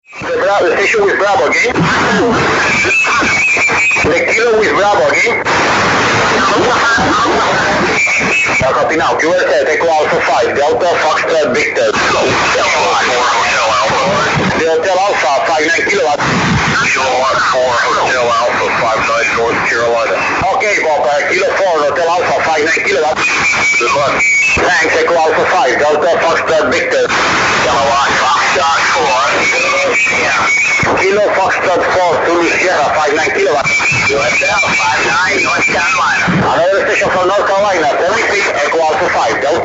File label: 15m ARRL Phone; ARRL 2010